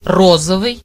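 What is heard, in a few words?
pink, Serbian; gcompris-data; colors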